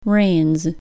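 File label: "rains", English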